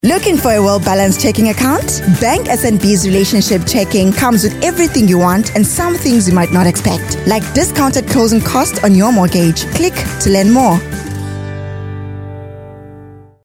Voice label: English; Eastern Cape, South Africa